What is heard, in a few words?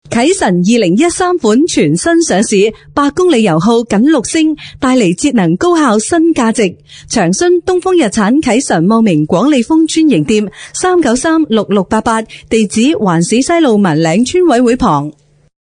Chinese Cantonese